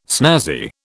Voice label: "snazzy", British